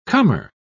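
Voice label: "comer", British English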